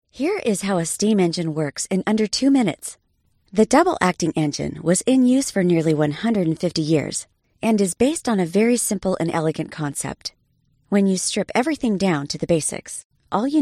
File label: english american cartoon animation